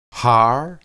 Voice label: "har", Hebrew

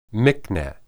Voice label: "miqneh", Hebrew

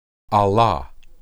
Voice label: "alah", Hebrew

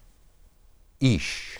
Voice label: "ish", Hebrew

